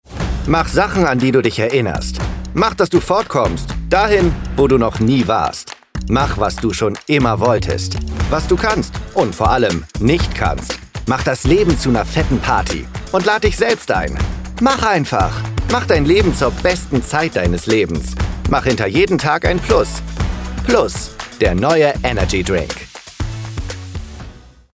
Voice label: Werbung Energy

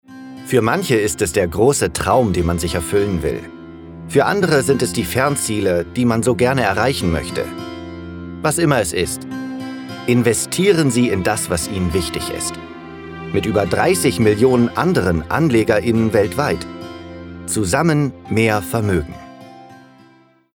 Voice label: Werbung Finanzen

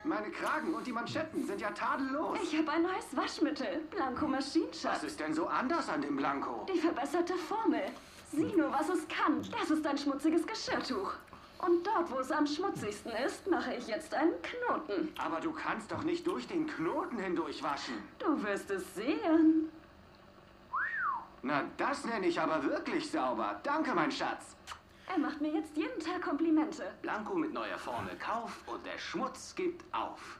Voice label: Synchron Werbespot

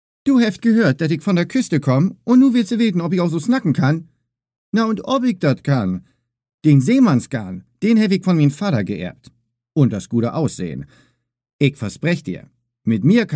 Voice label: Vorstellung Norddeutsch

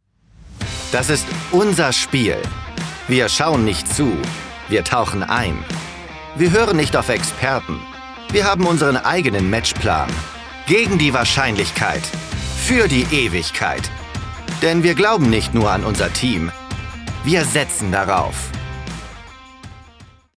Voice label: Werbung Sportwetten

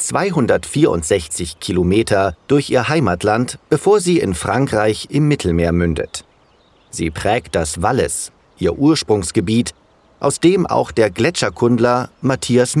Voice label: Doku Schweiz

